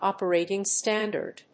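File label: "operating standard", English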